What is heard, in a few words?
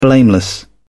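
"blameless", British English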